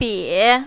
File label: "bie3", mandarin, female